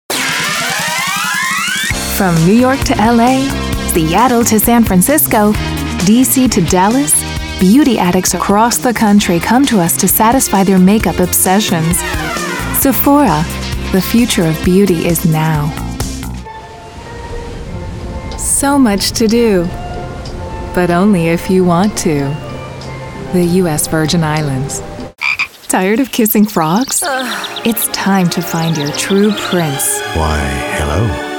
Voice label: commercial